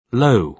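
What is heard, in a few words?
"low", British English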